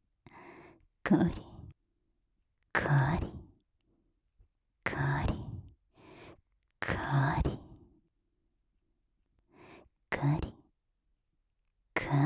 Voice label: お姉さんランダム, オノマトペ 左耳; 乳首オナ指示でカリカリタイムちょっぴりハードモード

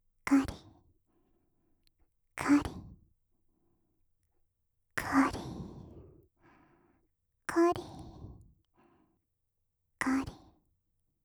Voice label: ロリ カリカリ ランダム, オノマトペ, 右耳; 乳首オナ指示でカリカリタイムちょっぴりハードモード

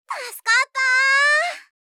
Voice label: 「助かったぁ」, 相づち・反応系【ポジティブ】

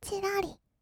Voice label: ちらり, kawaii voice; ASMR; 色々なワンショット; 1200 kawaii voice!!

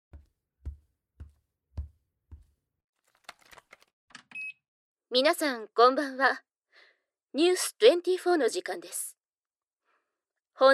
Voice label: 女性アナウンサー; ASMR

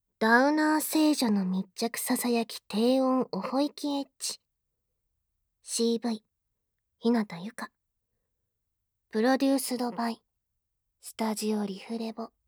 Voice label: タイトルコール, ASMR

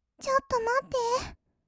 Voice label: ちょっとまって, kawaii voice; 1200 kawaii voice!!; 色々なワンショット

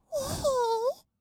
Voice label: にひー, kawaii voice; ASMR; 色々なワンショット; 1200 kawaii voice!!

